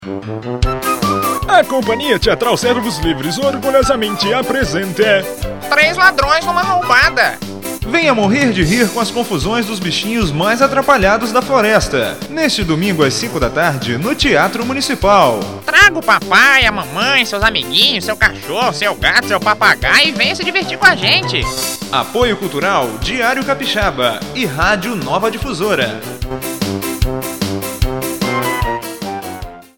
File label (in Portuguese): Vinheta Rádio; Três Ladrões Numa Roubada; espetaculo três ladrões numa roubada (chamada radio)